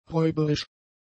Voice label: "räuberisch", German; adjectives

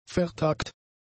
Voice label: "vertagt", German; adjectives

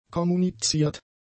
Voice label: "kommuniziert", German; adjectives

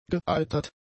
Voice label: "geeitert", German; adjectives

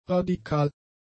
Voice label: "radikal", German; adjectives

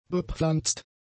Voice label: "bepflanzt", German; adjectives

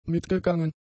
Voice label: "mitgegangen", German; adjectives

